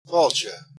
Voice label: welcome, Irish

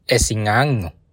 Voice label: name, bonggi

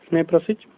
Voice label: dance, slovak

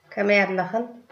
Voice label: how are you, tigrinya

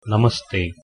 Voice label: hello, Kannada

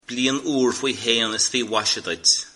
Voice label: "new year", Irish

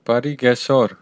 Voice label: good afternoon, armenian western

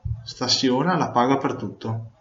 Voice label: pay, Venetian

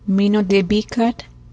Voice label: "good evening", ojibwe

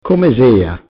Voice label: how are you, Venetian

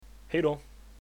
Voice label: goodbye, swedish

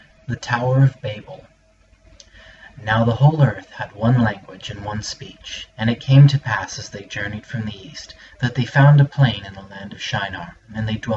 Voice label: english

